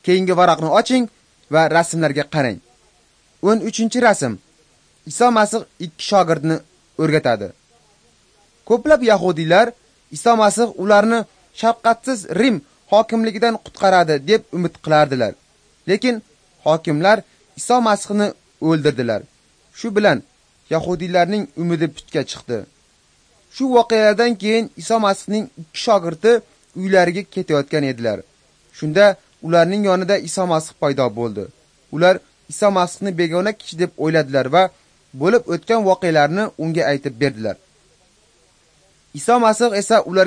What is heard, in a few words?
uzbek northern